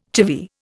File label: "chivvy", Indian